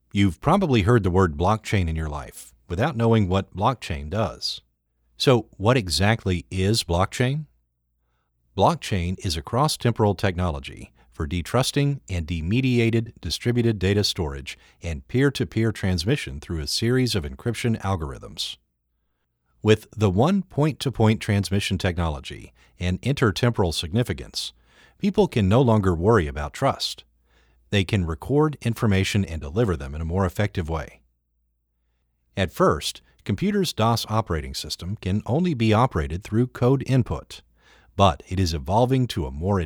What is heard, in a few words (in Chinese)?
外籍 干音; 区块链